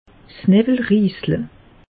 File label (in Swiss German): "newwelrisle", alsace; meteo